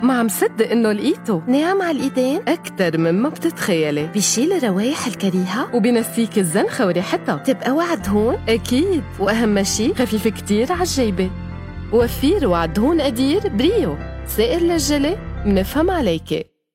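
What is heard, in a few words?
Arabic, female, Lebanese, TV characters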